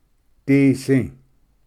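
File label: "disi", Cherokee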